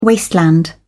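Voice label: "wasteland", British English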